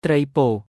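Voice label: "ត្រី ពោ" Khmer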